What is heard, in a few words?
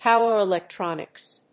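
"power electronics", English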